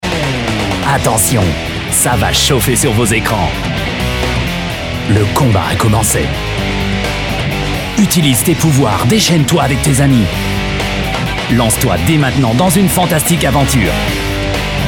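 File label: French spot; elsword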